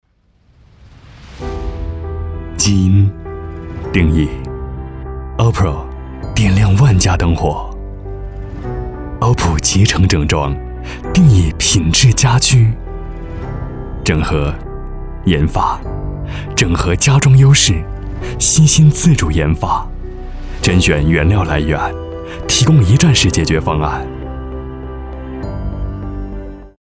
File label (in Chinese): 男 科技感低沉品质; 高端广告; OPPLE